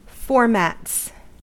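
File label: "formats" US English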